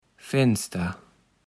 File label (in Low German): "Fenster", Platt